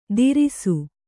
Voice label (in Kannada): "ತೀರಿಸು", Kannada